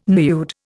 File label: "nude", Indian